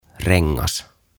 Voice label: "Rengas", "Hoop"; OTAVA KONSERNI

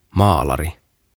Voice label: "Maalari" "Painter"

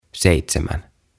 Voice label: "Seitsemän" "Seven"; OTAVA KONSERNI

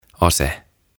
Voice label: "Ase" "Gun"; OTAVA KONSERNI

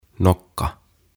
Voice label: "Nokka" "Beak"

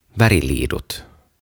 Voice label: "Väriliidut" Crayons

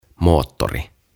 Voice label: "Moottori" "Engine"; OTAVA KONSERNI

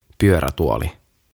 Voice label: "Pyörätuoli" "Wheelchair"

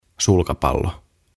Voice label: "Sulkapallo" "Badminton"; OTAVA KONSERNI

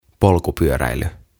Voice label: "Polkupyöräily" "Cycling"; OTAVA KONSERNI

